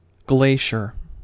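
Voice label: "glacier", US English; pronunciations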